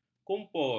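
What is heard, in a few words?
"kumpul", Malay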